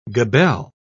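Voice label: "gabelle", British English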